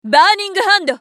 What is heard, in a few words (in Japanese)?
女･バーニングハンズ; ウォーロック